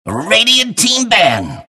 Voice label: announcer ban; Clockwerk